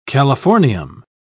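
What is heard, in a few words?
"californium", British English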